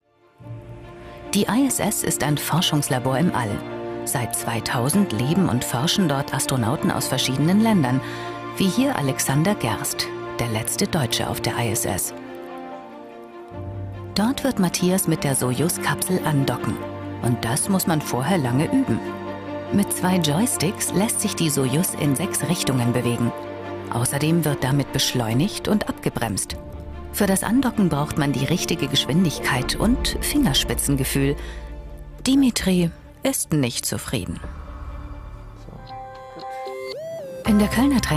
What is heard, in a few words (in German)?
Doku; ISS